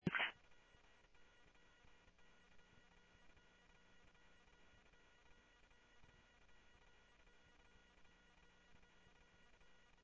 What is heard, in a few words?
Phoenix PD, scanner audio